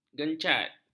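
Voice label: "gencat", Malay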